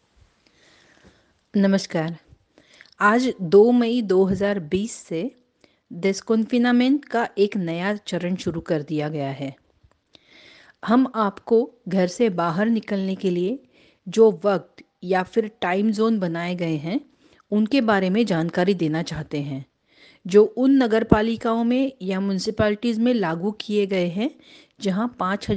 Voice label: hindi instruccions desconfinament; coronavirus